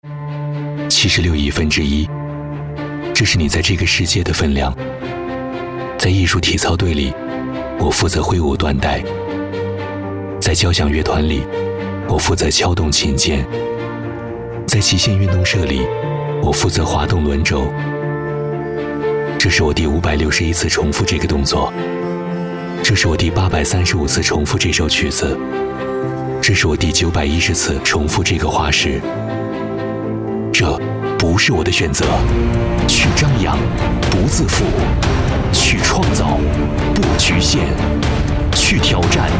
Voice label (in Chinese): 男 温情; 南方新媒体